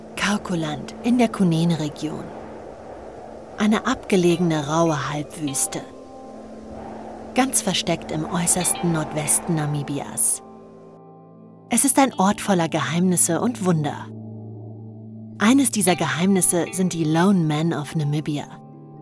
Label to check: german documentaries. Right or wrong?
Right